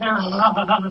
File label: freak, lo-fi jibber jabber